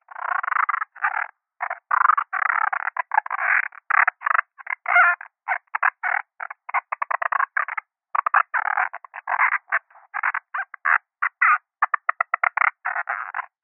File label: science fiction alien chatter over radio; zapsplat